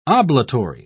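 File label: "oblatory", British English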